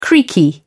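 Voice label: "creaky", English, British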